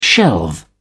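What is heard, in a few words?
"shelve", British English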